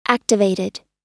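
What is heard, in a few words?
"activated", American English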